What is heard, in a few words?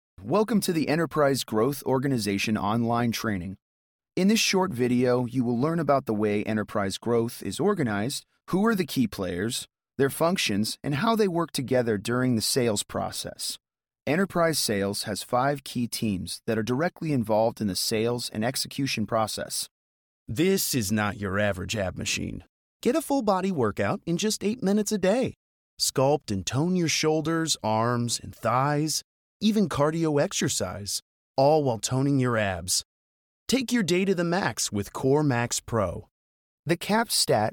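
e Learning, voice over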